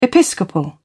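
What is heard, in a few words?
"episcopal", British English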